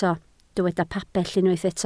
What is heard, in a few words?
"pabell", Welsh